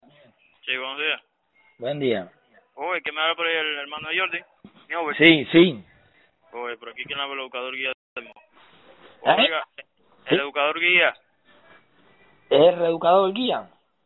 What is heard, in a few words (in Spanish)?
Llamada telefonica